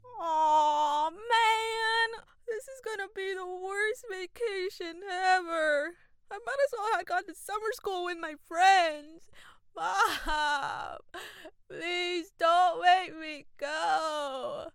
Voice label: Young Boy; Voiceover Actor